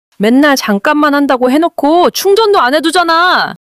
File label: anger; monologue